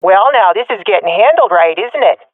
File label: round winning, swat fem; Counter Strike Model Pack; CS:GO